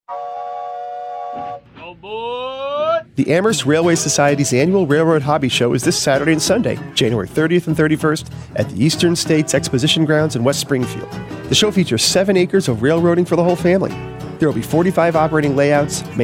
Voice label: Radio Spot